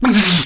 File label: hurt; lego star wars; 4-lom